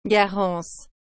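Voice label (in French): "garance", French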